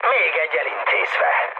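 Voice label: player killed enemy, mood med, Hungarian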